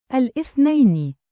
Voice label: "al-ithnayn", arabic